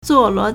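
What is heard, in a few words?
"zuòluò", Chinese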